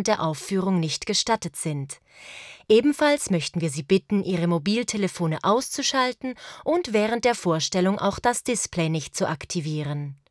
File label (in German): Opernhaus Ansage; G.F. Händel: Süsser Blumen Ambraflocken